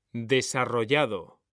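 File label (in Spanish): "desarrollado", Spanish; mekanta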